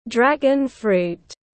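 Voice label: "Dragon-fruit", English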